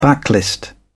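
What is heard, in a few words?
"backlist", British English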